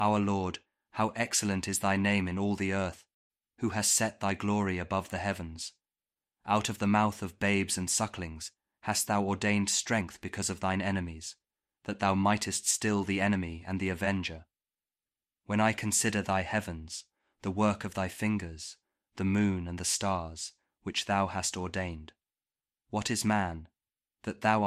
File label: psalm kjv king james audio bible